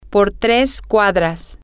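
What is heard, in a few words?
Spanish, female; for 3 Blocks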